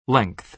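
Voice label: "length", American English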